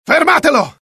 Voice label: alert, dialogue IT; mohaa; attack

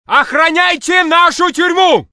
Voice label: Russian; mohaa; dialogue

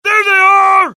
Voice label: alert, dialogue generic US; mohaa

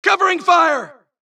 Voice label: supress, dialogue Allies; mohaa; Mission 2

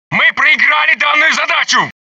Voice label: russian failure; dialogue; mohaa

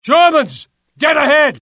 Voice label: alert, UK; mohaa; dialogue

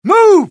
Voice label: suppress, dialogue, US; mohaa

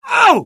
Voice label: death, UK; dialogue; mohaa

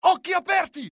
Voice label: alert, dialogue IT; mohaa; attack

